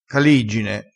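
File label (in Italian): "caliggine", dialettale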